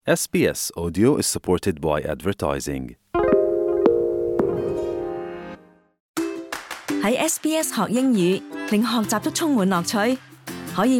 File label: anzac day ode of remembrance mixed cantonese; sbs